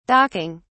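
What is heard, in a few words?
"docking", British English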